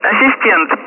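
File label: Speech, Russian, air traffic control; Callsign; Assistent; DCS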